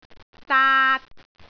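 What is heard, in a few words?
"daat3", Cantonese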